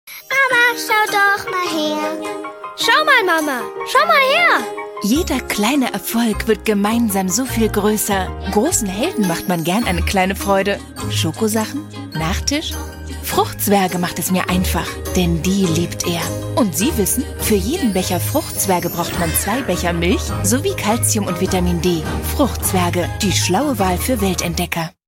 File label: tvc